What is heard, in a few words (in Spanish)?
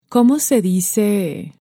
como se dice, Spanish